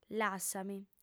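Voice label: "lassa·mi", female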